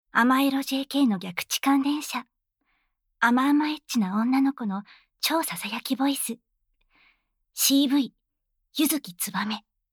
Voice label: タイトルコール

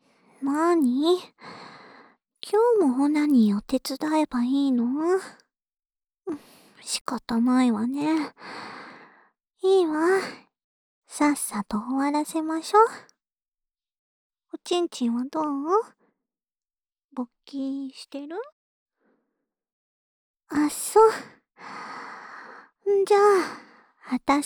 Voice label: オナサポ用シコシコボイスループ（左耳）, ASMR

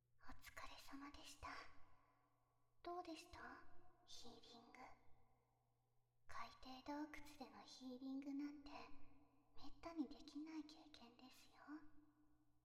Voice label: 添い寝ー右, ASMR

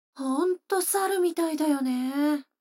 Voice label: 女の子台詞

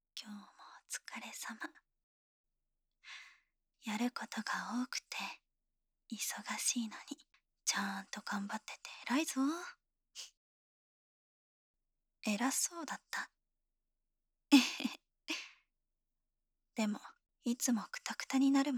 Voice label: 励まし彼女, シチュボ

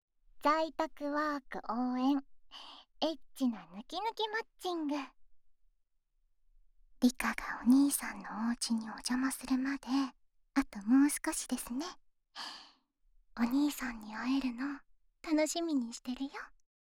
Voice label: PR 発売前

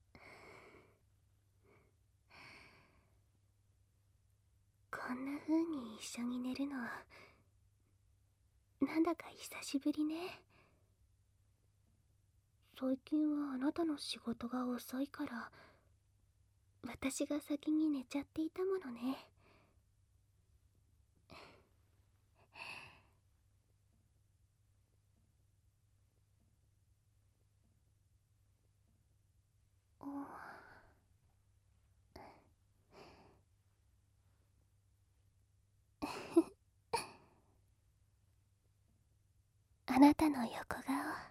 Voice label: 添い寝, ASMR

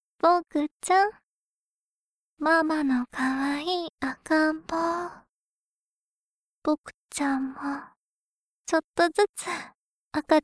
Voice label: 射精トレーニング, ASMR

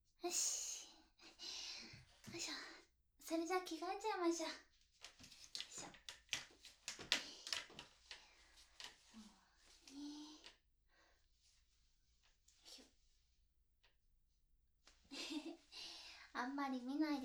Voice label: 着替えと別れ バイノーラル

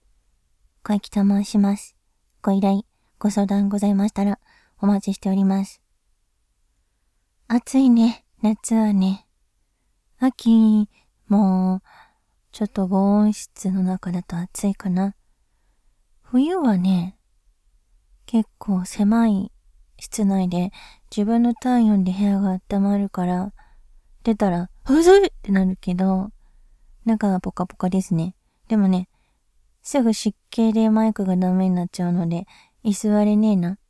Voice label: 季節 について の フリートーク 断片

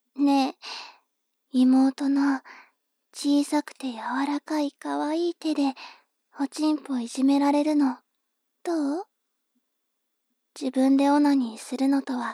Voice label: 妹 台詞

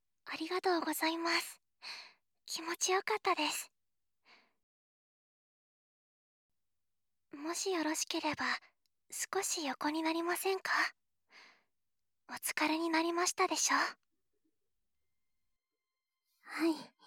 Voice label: 添い寝

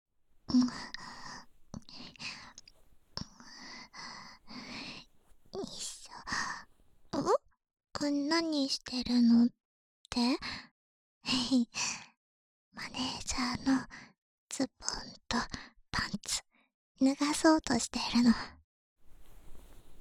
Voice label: くちゅ音無し 耳舐め手コキ, ASMR

